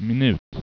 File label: "minut", Swedish